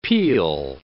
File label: "peal", English